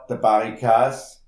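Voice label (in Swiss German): "berrikaas", Alsatian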